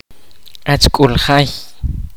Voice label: kaqchikel; neighbor

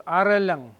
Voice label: "areloang", Mokilese

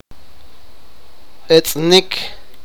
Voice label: sipakapense; play

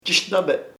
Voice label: "frightened asustado", chamacoco

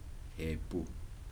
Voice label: "skins", Kibembe

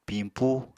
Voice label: "pimpú", Apatani

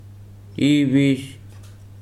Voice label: "sand", Weri

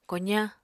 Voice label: "kozañ", Apatani

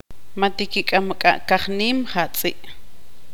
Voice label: tzutujil; los perros no corren estan corriendo

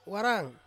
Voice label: "warang", Ansus; hand

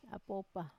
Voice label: "apopa", cobweb, Wamesa

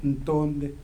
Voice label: "star", Kibembe

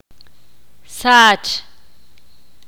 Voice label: ixil; jugar

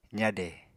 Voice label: "zade", Apatani

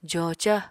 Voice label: "joca", Apatani

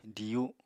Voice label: "diyu", Apatani

